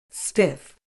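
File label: "stiff", British English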